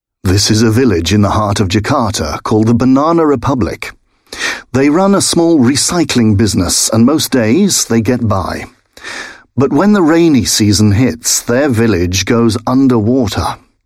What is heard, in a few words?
UK English; AirBnB